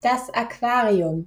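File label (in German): "Aquarium", German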